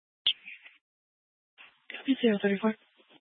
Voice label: Fire Dispatch, scanner